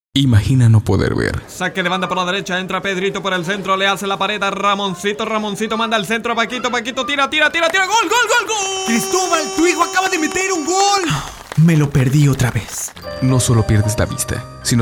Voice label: SPOT GLAUCOMA